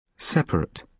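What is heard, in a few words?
"separate", British English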